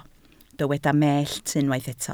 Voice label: "mellt", Welsh